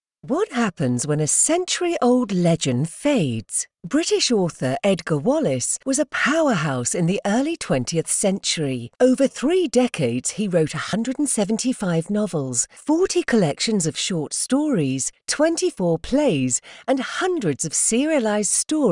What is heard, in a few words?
Documentary, A velvety, articulate voice, Articulate, Sophisticated, engaging Female Voice